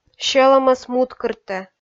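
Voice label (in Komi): "сьӧлӧмӧс муткыртӧ", Komi, female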